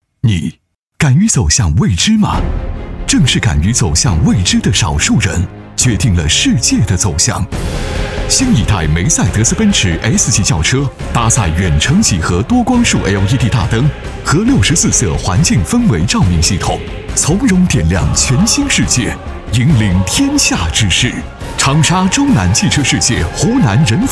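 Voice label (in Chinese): 广告奔驰大气震撼; 仁孚奔驰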